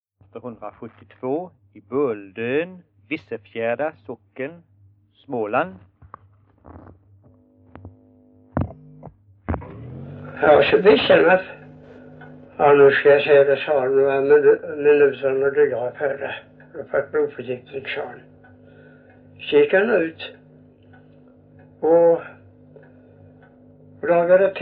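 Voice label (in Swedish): Visseltofta Skåne, Svenska sagor dialekter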